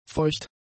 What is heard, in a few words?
"feucht", german; adjectives